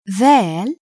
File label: "thaal", Arabic letter